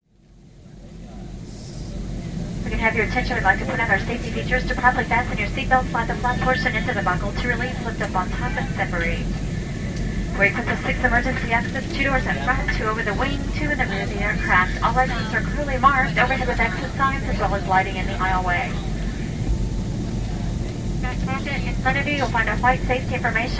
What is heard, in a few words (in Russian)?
zvuk stjuardessy